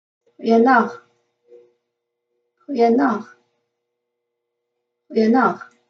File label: Afrikaans Good night; Greetings